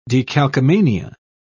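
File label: "decalcomania", English, British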